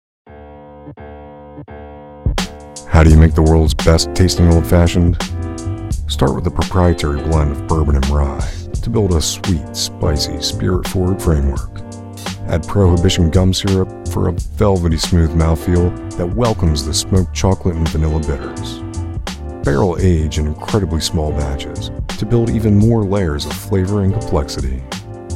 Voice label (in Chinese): 外籍英男, 波本威士忌, 酒类广告